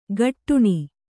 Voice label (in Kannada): "ಗಟ್ಟುಣಿ", Kannada